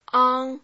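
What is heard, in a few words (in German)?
Phoneme, chinesisch; ang 1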